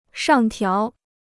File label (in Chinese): "上调", Chinese